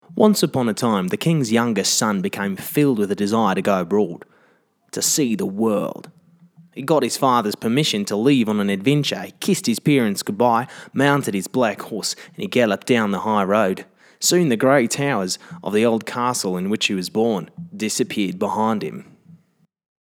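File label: Australian Story Time Voice Over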